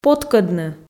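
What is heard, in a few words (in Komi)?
"поткӧдны" Komi, female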